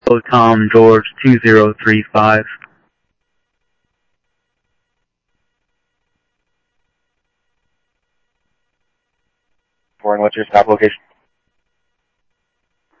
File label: Scottsdale PD Scottsdale Police, scanner audio; SCTSDLE PD - PD2; ThompsonPeakHigh